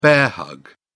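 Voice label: "bear-hug", English, British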